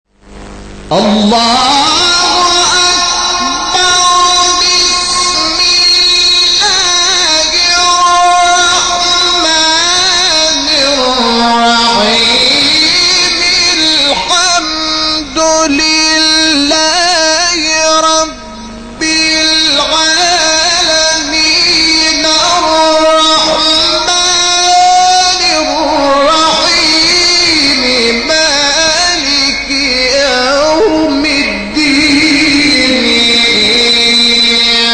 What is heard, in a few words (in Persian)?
تلاوت سوره مبارکه حمد; محمود شحات انور